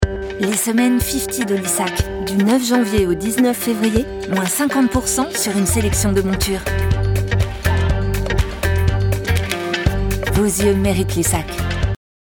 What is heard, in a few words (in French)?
pub fraiche dynamique; LISSAC Fifty